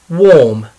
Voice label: "warm", English